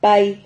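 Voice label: "pahi", Spanish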